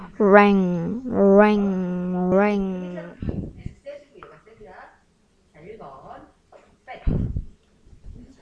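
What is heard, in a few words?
"ring", spoken word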